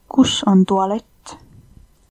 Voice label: toilet, estonian

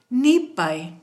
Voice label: please, kichwa

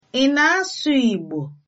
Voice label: do you speak, igbo

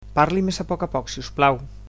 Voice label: "slowly", Catalan

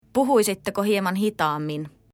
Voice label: slowly, finnish; Puhuisitteko hieman hitaammin?

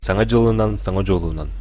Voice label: new year, sakha

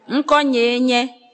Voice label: "my name is", Ewe

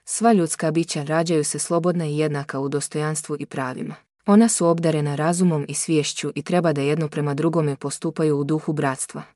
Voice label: Bosnian

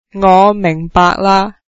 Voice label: i understand, cantonese; 我明白嘞 (ngóh mìhngbaahk la); Chinese (Cantonese)

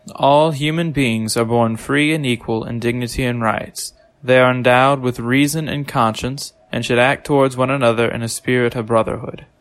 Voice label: udhr en am

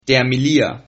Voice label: sorry, Sylt Frisian

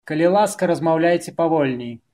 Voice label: slowly, belarusian; Калi ласка, размаўляйце павольней!; Kał łaska, razmaŭlajcie pavolniej!

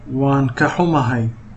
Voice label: sorry, somali

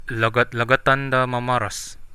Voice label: slowly, central dusun

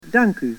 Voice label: thanks, dutch

